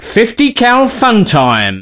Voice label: Cheer, voice commands male; svencoop